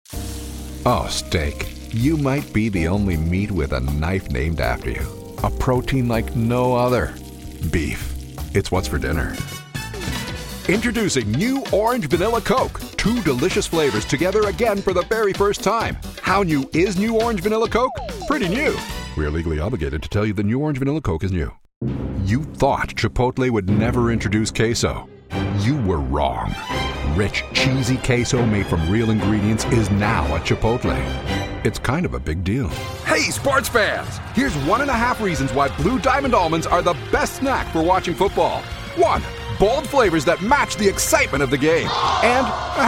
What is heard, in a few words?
commercial